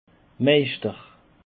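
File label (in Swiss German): "meischter", Alsatian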